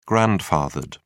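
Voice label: "grandfathered", English, British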